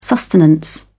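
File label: "sustenance", British English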